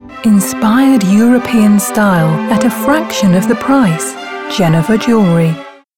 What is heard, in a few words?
Luxury commercial